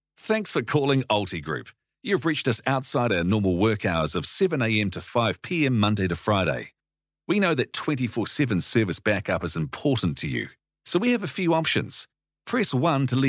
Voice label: Voicemail Greeting